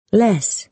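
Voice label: "less", American English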